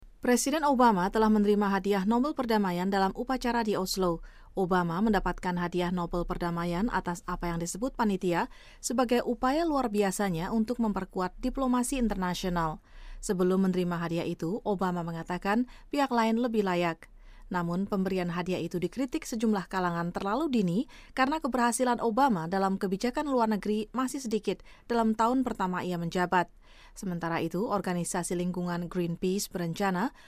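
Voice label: Indonesian, General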